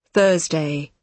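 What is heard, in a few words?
"thursday", British English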